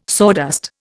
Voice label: "sawdust", Indian

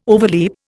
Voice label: "overleap", Indian

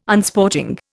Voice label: "Unsporting", Indian

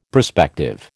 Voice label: "prospective", American

